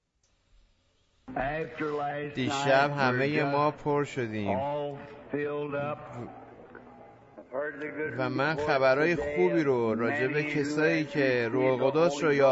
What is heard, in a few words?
Farsi Voice Over; Q and A on the Holy Ghost